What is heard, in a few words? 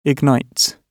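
"ignites", British English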